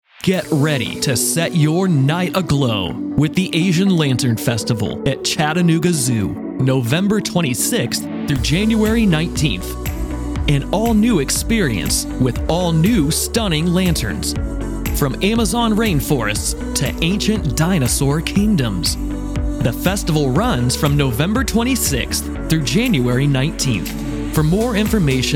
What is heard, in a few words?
voiceover